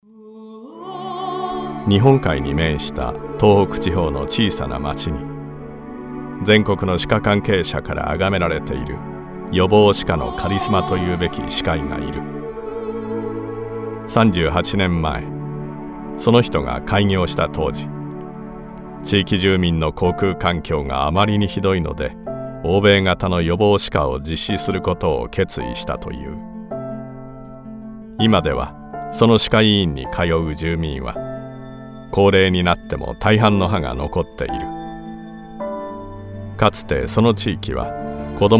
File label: japanese documentaries